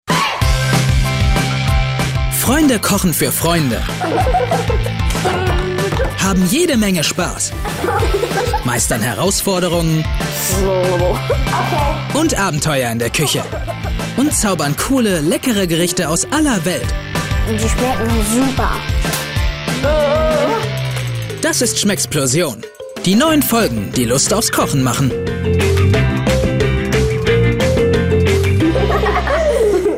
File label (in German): Werbung Lebendig